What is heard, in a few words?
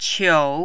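"qiu", Mandarin, third tone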